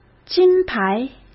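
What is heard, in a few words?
"金牌", Mandarin Chinese; hsk; chinese flashcards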